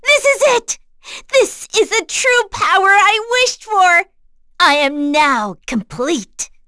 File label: vox, en; kingsraid; voices heroes